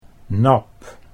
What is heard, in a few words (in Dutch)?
"nap", Dutch